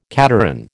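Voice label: "cateran", American English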